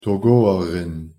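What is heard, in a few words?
"togoerin", Luxembourgish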